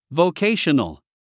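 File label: "vocational", English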